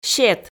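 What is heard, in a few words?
"сет" Komi, female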